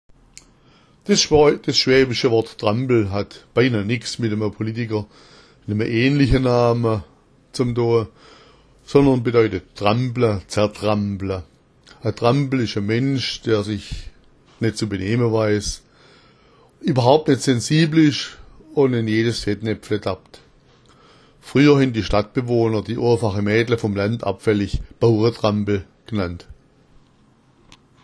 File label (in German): "trampl", schwaebisch